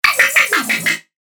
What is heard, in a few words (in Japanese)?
ロボの声 モノラル